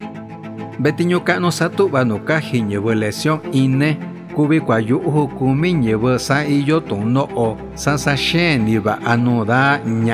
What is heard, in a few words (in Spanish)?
MIXTECO